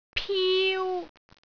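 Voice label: "piu1", Cantonese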